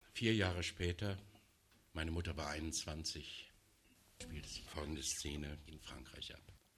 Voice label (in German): ansage; abgesang